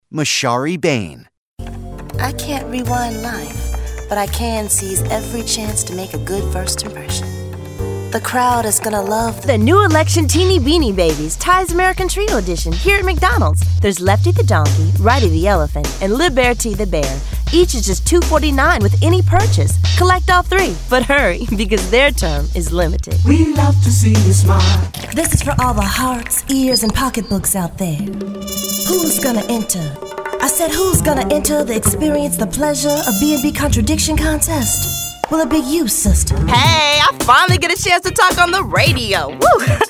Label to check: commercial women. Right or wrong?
Right